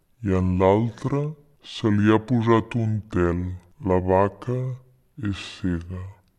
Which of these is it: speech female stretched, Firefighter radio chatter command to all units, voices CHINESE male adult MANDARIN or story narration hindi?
speech female stretched